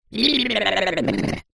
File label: cartoon voice character head shake dazed high pitched